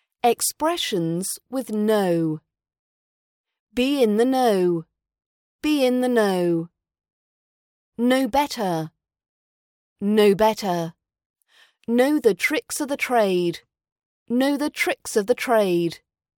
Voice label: expressions with know rp british accent pronunciation practice